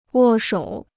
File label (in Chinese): "握手", Chinese